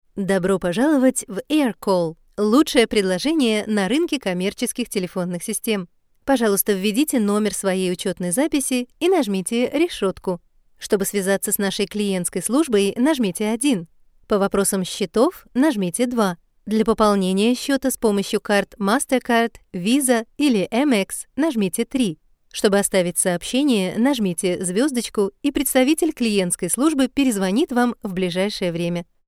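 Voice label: IVR Russian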